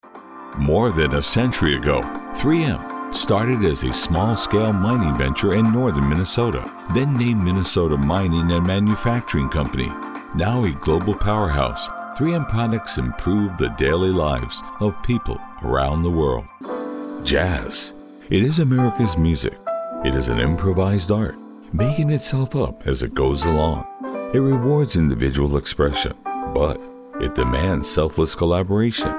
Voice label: Narration